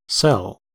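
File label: "cell", American English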